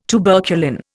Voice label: "tuberculin", Indian